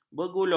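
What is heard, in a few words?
"bergulung", Malay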